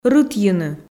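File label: "рытйыны", Komi, female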